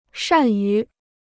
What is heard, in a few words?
"善于", Chinese